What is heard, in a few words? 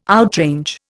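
"outrange", Indian English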